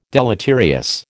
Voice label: "deleterious", American